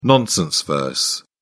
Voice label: "nonsense verse", en uk